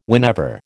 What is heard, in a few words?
"whenever", American